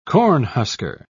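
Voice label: "cornhusker", British English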